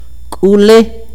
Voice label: vientre, akateko

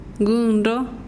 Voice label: granizo, mazahua

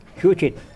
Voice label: Nawat palabras flor; pipil

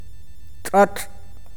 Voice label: tierra, awakateko

